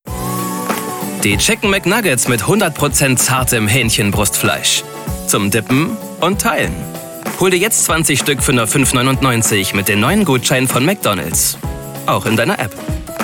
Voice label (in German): Spots OFF; McDonalds